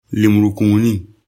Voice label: "lemurukumuni", lecture bm